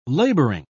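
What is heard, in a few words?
"labouring", British English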